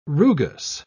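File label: "rugous", English, British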